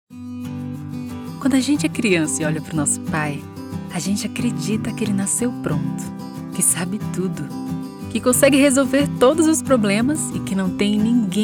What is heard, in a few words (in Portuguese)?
Locução